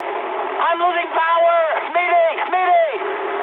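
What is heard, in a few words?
English damaged engine mood high; War Thunder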